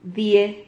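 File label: "die", Spanish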